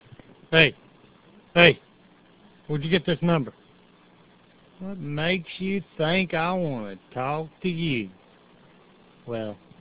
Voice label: angry